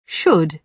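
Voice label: "should", British English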